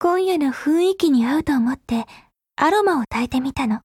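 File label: Japanese; Groza; Dreamscape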